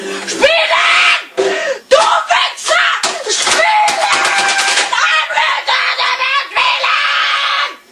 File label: angry; gearbox